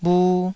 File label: "bhu1", taiwanese Syllables